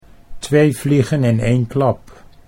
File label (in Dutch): "2 vliegen in 1 klap", Dutch